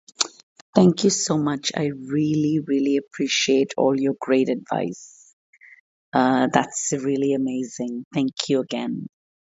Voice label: Truly Amazing testimonial message